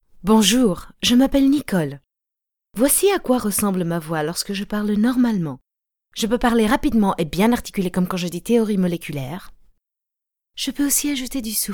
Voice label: Mixed English French Greek